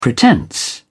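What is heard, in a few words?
"pretence", British English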